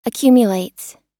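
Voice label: "accumulates", American English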